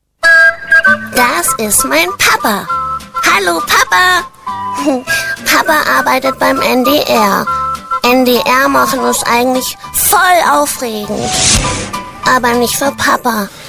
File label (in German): Kinderstimme